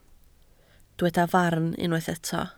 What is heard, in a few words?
"farn", Welsh